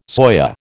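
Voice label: "soya", American English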